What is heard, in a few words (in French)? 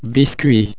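"biscuits", French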